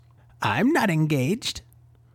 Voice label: Ugly old noble woman with sharp features, imperious bearing, and ancient lineage, Audiobook NPC; Lady Giselle